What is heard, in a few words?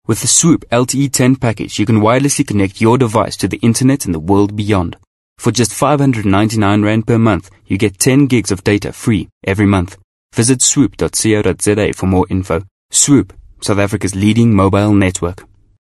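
South African English, Commercial; GoLocalise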